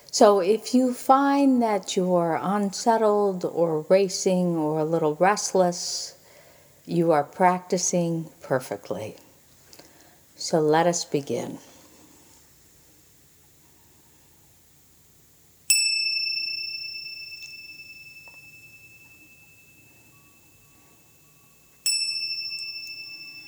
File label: Meditation; General Ok-ness Meditation